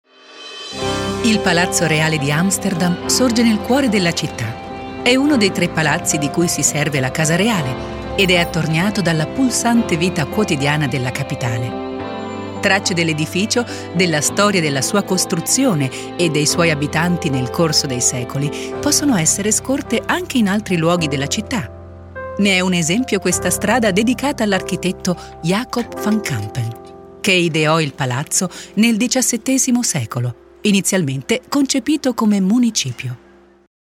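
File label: it audio guide, Italian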